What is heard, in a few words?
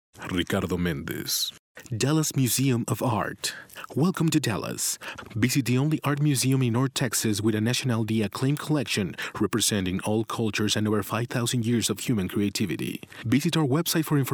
Commercial Male